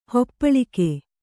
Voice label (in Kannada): "ಹಪ್ಪಳಿಕೆ", Kannada